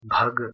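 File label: "bheh2g", Proto-Indo-European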